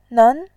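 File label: "nen", Mandarin, tone 2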